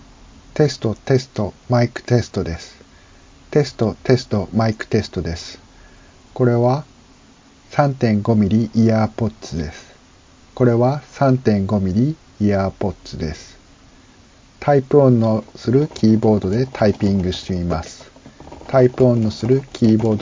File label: 35mm EarPods; 録音音声; 有線; なんら問題なし。マイクはイヤホンのリモコン部分にあるせいでしょうか、キーボードの音は拾いすぎず、ホワイトノイズはOpenMoveより大きいと思いますがZoomで除去できるレベルかと思います。